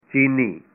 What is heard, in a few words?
"Chinese", punjabi